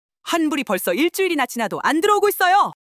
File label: anger; monologue